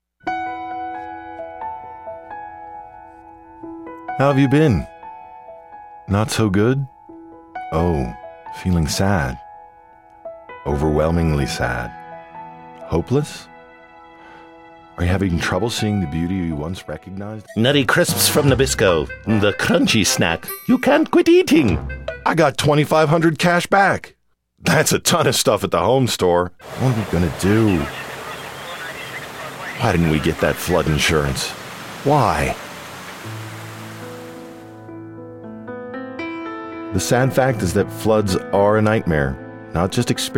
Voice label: commercial